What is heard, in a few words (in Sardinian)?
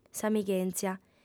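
"s'amighèntzia", female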